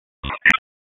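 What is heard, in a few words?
Police Dispatch, p25; Wake Forest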